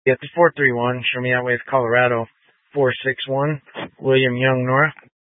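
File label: Dispatch, Scanner audio recording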